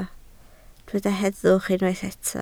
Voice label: "heddwch", Welsh